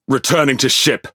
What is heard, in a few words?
Pirate Speech Pilots Torpedo Land; World Of Warships